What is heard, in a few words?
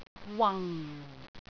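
"wang4", Cantonese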